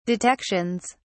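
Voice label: "detections", British English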